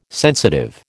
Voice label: "sensitive", American